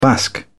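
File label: "basque", British English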